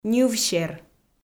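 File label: "ньывсер", Komi, female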